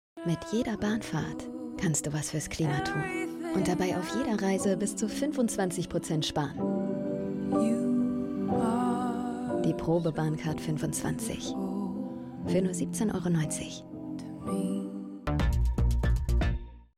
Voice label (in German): Deutsche Bahn Commercial, stimme schauspiel